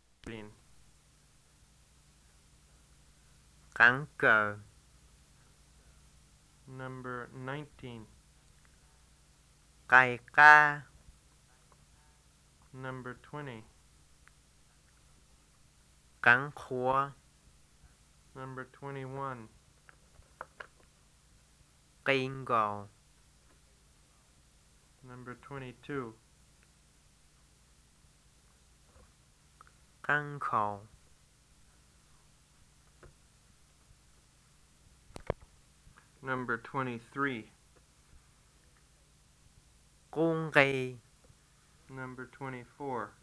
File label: Green Miao list